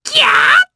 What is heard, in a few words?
Casting, jp; Lakrak; kings raid